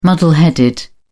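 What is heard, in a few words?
"muddle headed", British English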